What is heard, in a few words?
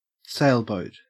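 "sailboat", Australian English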